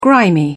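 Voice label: "grimy", British English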